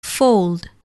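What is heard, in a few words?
"fold", English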